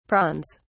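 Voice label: "prawns", English, British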